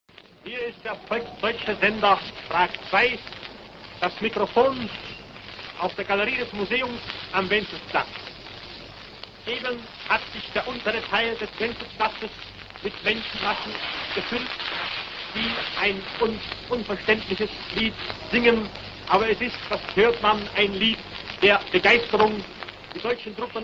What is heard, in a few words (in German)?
reportage; prag ii; deutsche truppen erreichen wenzelsplatz in prag; 1939